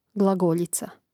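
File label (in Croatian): "glagoljica", hrvatski; mreznik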